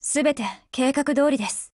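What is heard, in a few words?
Japanese, Voice; GF Alarm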